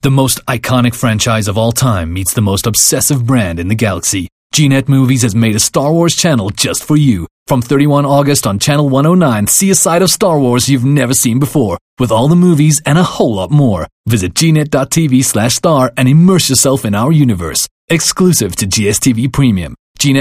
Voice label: English Promo American Accent

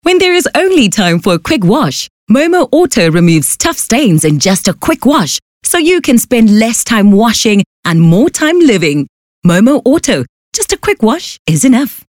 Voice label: English bright

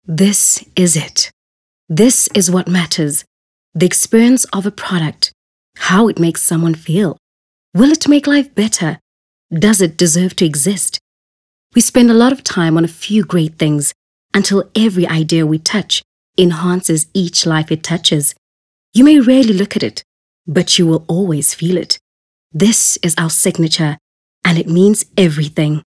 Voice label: English Corporate

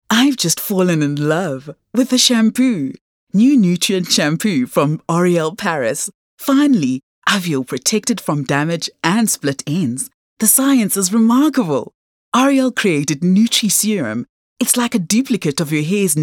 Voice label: English Bright

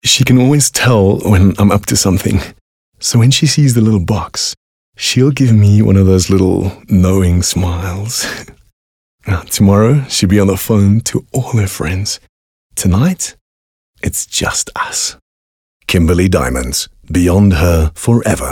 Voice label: English Conversational Young Man

